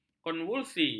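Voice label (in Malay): "konvulsi", Malay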